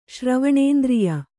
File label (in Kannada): "ಶ್ರವಣೇಂದ್ರಿಯ", Kannada